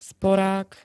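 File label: "sporák", Slovak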